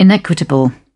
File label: "inequitable", British